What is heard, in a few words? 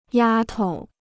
"丫头", Chinese